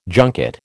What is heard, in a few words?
"junket", American